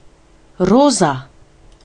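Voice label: pink, Serbian; gcompris-data; colors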